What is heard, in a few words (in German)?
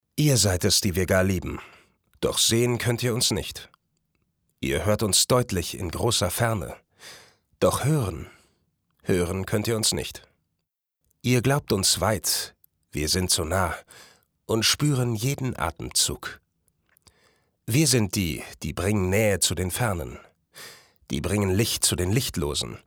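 sachlich, Männer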